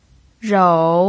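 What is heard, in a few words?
"rou" Mandarin Chinese, third tone, pinyin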